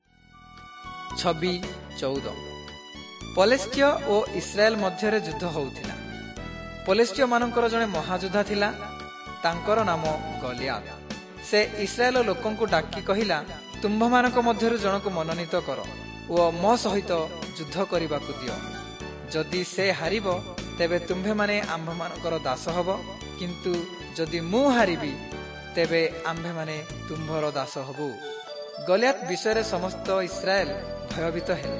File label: southern oriya; servants of god